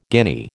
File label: "guinea", American